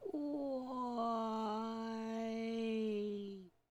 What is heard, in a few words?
Voices Female